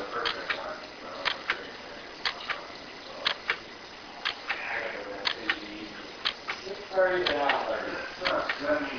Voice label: Unexplained low whisper; Case 032 Wampler Home